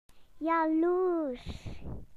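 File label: "jealous", British English; PictureDictionary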